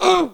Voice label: human hit; wesnoth